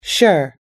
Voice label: "sure", us english

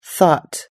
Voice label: "thought", us-english

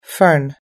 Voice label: "fern", us-english

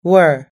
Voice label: "were", us-english

